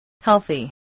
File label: "healthy", English, spelling